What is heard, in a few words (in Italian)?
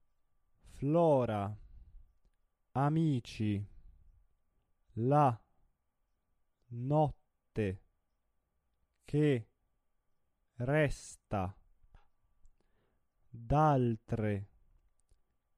monotono; traviata; violetta